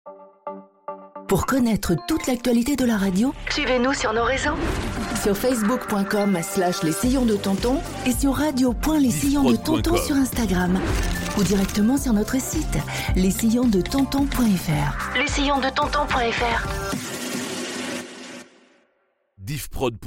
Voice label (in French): Jingle Reseaux; Les Sillons de tonton